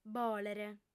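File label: "bòlere", female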